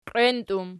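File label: "qienti" plural, Archi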